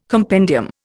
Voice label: "compendium", Indian